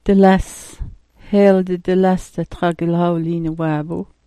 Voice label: "dales", smalgyax